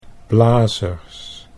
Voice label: "blazers", Dutch

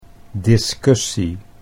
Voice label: "discussie", Dutch

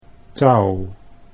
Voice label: "touw", Dutch

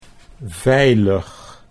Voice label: "veilig", Dutch

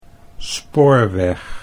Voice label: "spoorweg", Dutch

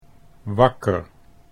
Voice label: "wakker", Dutch

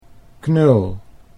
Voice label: "knul", Dutch

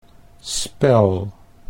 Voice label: "spel", Dutch

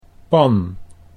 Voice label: "pan", Dutch

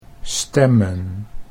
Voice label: "stemmen", Dutch

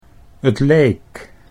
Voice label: "tleek", Dutch

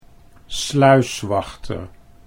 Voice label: "sluiswachter", Dutch

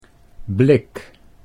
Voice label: "blik", Dutch

